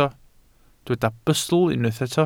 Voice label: "bustl", welsh